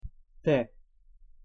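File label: "т", Russian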